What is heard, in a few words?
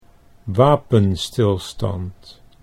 "wapenstilstand", Dutch